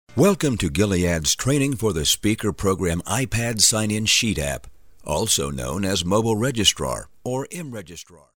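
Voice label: English US, male, e learning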